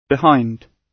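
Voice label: "behind", British English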